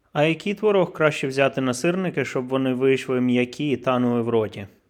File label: ukrainian prompt; aya expanse